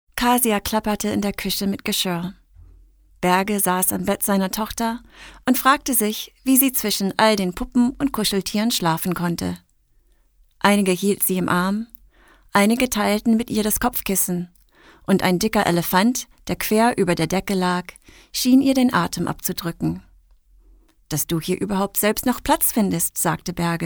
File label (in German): story deutsch; sprecher